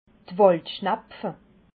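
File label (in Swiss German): "waldschnapfe", Alsatian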